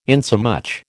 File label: "insomuch", American